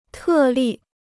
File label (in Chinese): "特例", Chinese